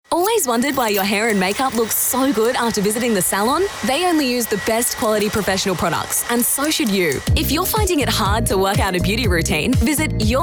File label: Commercial Australian Female